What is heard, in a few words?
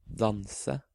"Danse", Norwegian